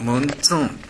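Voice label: "muntun", Minamiyamagata dialect Japanese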